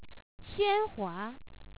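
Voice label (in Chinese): "xuanhua", Chinese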